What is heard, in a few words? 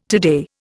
"today", Indian